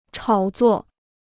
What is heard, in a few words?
"炒作", Chinese